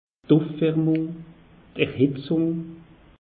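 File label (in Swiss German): "ufwarmung", Alsatian; meteo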